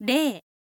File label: info lady rei